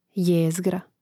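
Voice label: "jezgra", Croatian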